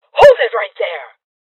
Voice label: Voices Freeze Female Old; Rebel Cops